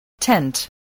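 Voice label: "tent", English word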